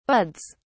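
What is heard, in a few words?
"buds", British English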